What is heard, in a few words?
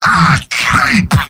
demoman m negative vocalization; tf2; garrysmod; mvm